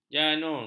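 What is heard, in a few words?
"janur", Malay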